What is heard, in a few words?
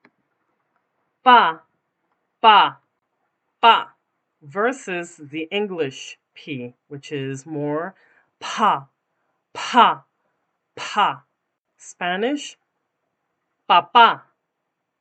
Spanish P sound